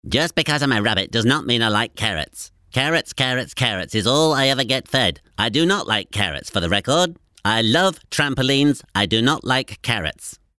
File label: Cartoon Rabbit voice